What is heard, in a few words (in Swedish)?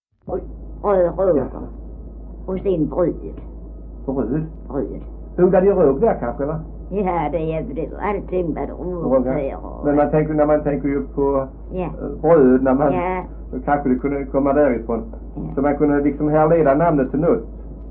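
Äsphult Skåne, dialekter svenska sagor; Folkminnen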